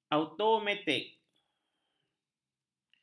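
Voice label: "automatik", Malay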